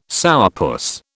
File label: "sourpuss", British